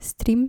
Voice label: "striem", Dutch

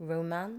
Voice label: "roman", english

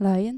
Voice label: "lion", dutch

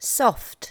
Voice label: "soft", L2 English

